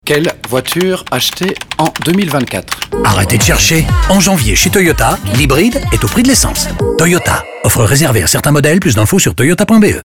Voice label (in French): Radio Promo Voiture Jeu; Toyota; FR; 10s